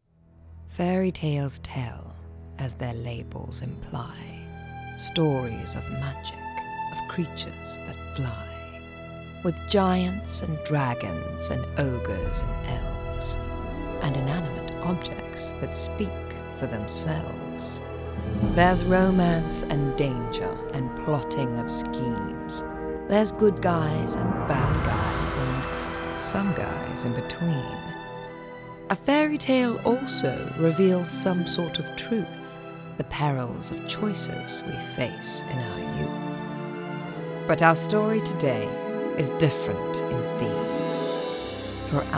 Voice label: british; ella enchanted

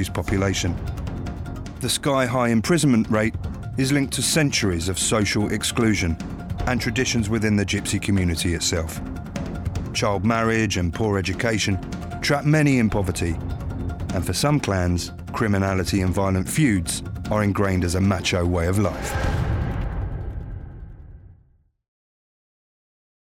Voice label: Prison Documentary - Calm, Gritty, Assured

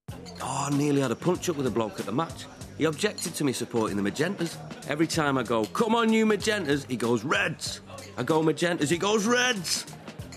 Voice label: Blokey, Charismatic; HP

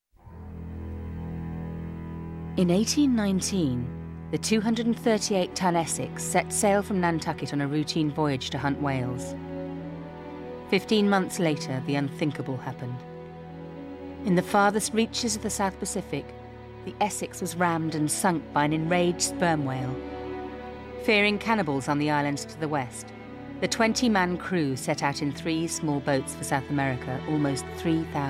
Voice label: Documentary Reel

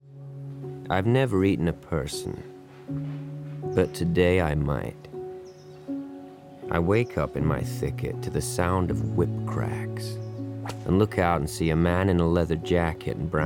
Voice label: Open Throat; Open Throat Examples

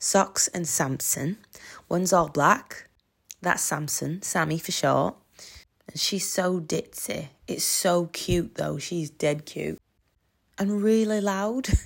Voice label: General Northern

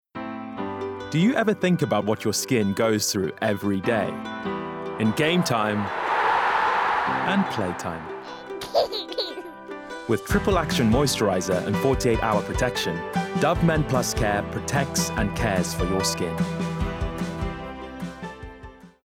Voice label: Calm, Warm, Caring; Dove Men

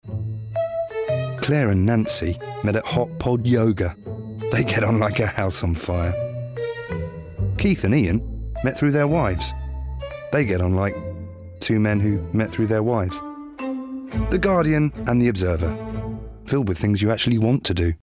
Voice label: Wry, Natural, Relatable; The Guardian

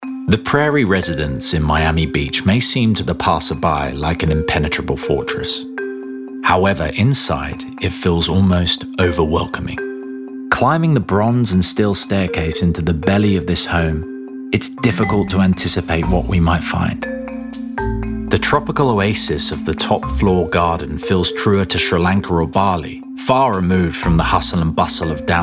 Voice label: Narration

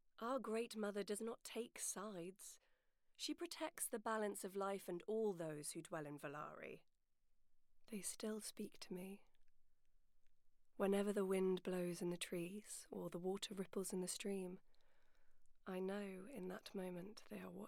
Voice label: Gaming Narrator; Game Demo

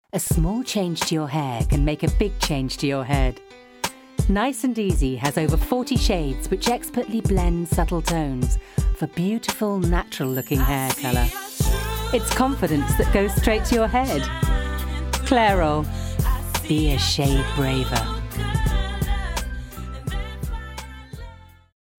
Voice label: Warm, Bright, Clear; Clairol

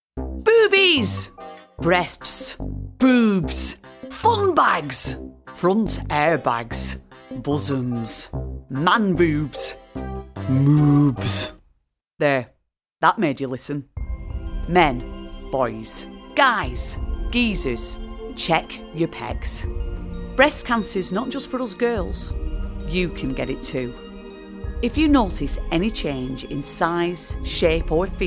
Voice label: Commercial

